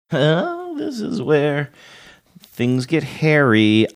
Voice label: saying this is where things get hairy sing songy

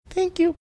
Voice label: cute thank you

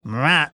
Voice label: attempting to throw voice say matt

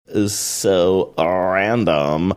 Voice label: saying is so random valley girl accent

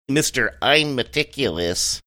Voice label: funny; mr immeticulous

